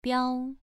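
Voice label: "biao" Mandarin, second tone, pronunciation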